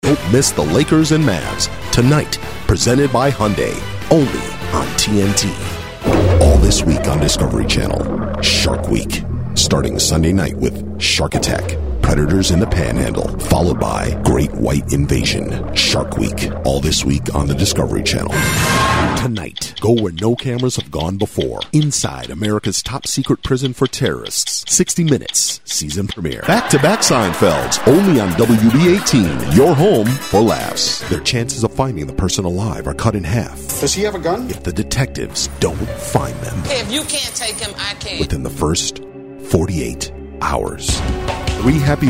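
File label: Promo, African American Voice Actor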